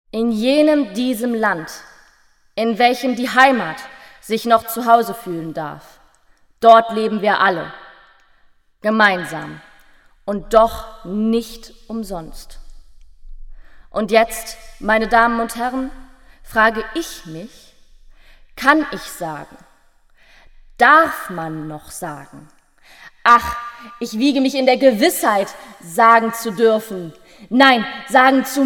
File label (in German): weibliche Abmoderation; Spot IV - Faden-verloren